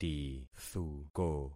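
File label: "tithuko", concatenated syllables; Affixing experiment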